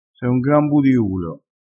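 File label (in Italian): "budiulo", vocabolario dialettale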